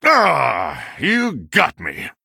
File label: Male Human Concede; en